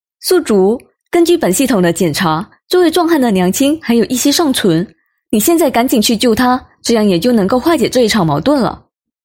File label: Mandarin China Voice Sample